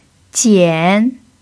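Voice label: "jiǎn", Mandarin Chinese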